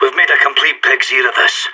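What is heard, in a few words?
scared emote, voice; agents; sas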